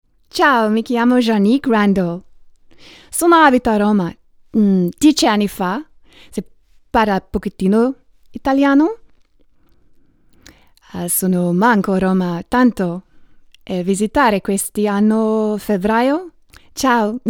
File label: intro italian